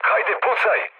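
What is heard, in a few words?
Serbian attack position mood low, speech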